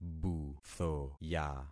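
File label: "buthoya", concatenated syllables; Affixing experiment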